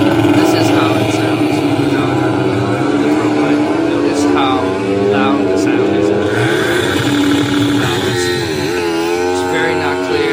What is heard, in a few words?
bmt sample recording, bluetooth throat mic; bmt bluetooth throat mic full kit